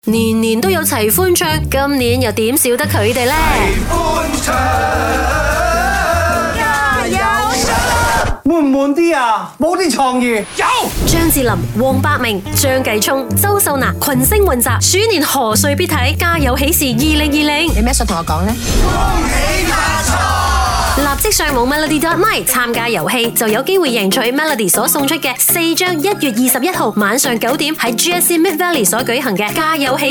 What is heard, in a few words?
cantonese movie promo